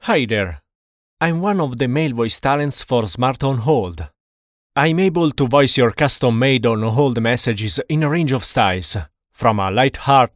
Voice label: male; Voice Over; On Hold Messages; smartonhold